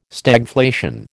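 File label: "Stagflation", American English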